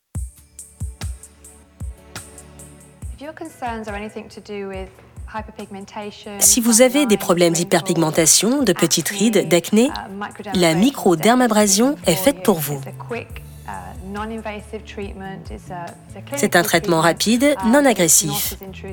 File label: voice over; LA MICRODERMABRASION